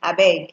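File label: "abeg", Nigerian Pidgin, female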